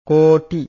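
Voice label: "kot_i", Pali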